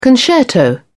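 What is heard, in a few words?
"concerto", British English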